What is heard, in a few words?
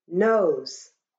Nose, shona